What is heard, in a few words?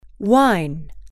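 "wine", female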